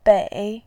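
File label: "bei", Mandarin Chinese, tone 3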